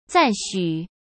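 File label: "赞许", Mandarin Chinese; chinese-flashcards; hsk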